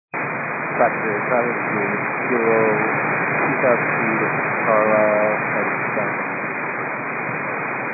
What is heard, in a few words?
122 925MHz, scanner radio